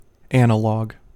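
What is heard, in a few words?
"analog", American English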